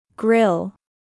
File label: "grill", English